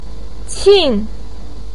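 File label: "qin", Chinese pinyin, fourth tone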